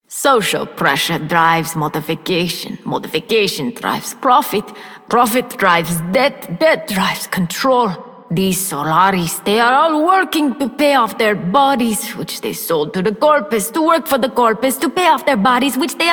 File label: Female Generic, Female, en; Dialog; Warframe; Solaris Venus